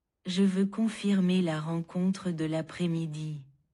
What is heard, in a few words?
french; 10-languages-samples